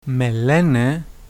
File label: my name is, Greek; Με λένε ...; Me léne ...